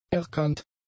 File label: "erkannt", German; adjectives